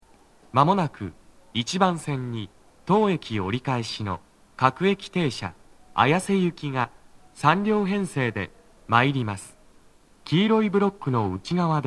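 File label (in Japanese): 北綾瀬 1番線 接近放送, 男声, 各駅停車 綾瀬行 (3両編成), 待合室TOAでの収録; 綾瀬・代々木上原・唐木田・伊勢原方面; 鳴動は、やや遅めです。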